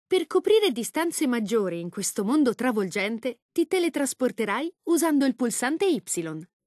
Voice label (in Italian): lang it it, voiceovers